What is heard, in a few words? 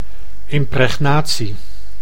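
"impregnatie", Dutch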